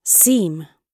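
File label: "seem", British English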